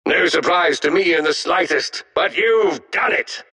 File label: announcer victory; dlc defensegrid